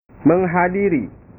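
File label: "menghadiri", Indonesian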